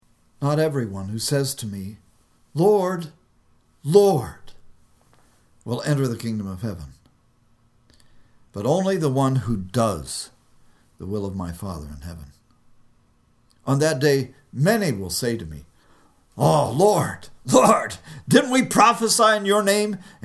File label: storytelling